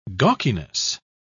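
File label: "gawkiness", British English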